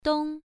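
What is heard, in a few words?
"dong" Mandarin, first tone, pronunciation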